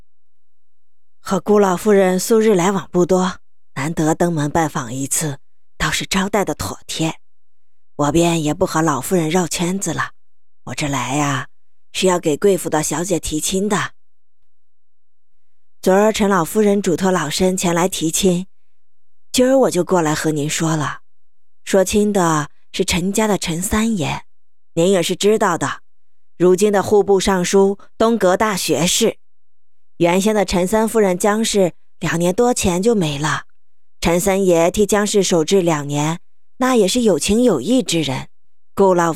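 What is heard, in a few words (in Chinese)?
老夫人, 有声小说录制 性别:女 宫闱宅斗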